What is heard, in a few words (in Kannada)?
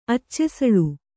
"ಅಚ್ಚೆಸಳು", Kannada